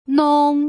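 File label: "nong", Putonghua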